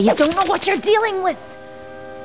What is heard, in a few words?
Exclamation; Desktop Studio 2000; People; Holly Brisley